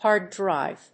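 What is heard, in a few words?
"Hard Drive", English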